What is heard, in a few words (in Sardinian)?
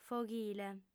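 "foghile", female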